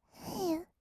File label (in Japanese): "へう", kawaii voice; ASMR; 色々なワンショット